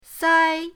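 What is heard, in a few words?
"sai1", Mandarin Chinese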